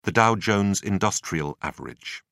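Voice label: "dow-jones industrial average", British English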